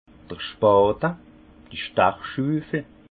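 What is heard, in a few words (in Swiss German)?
"spate", Haut Rhin; vin; bèche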